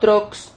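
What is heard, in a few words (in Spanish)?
"trox", Spanish syllable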